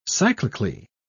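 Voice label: "cyclically", British English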